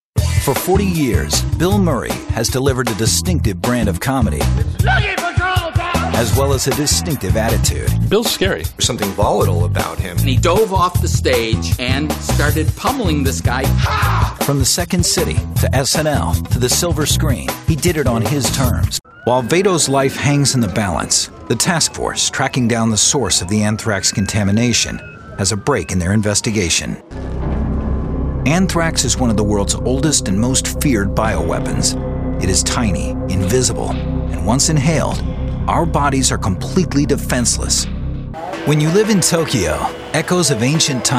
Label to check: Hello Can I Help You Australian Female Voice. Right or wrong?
Wrong